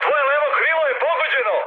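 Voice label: Serbian left wing mood high, speech; War Thunder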